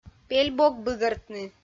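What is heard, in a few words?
"пель бок быгӧртны", Komi, female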